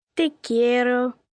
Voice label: Spanish I Love You, Endearment, 1 girl, 11 yrs, Spanish